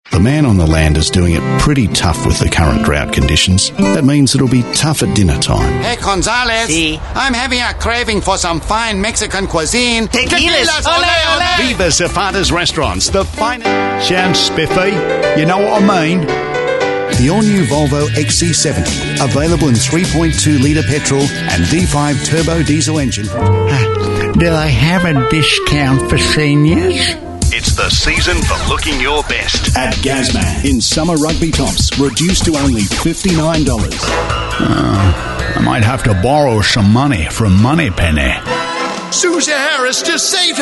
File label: male talent retail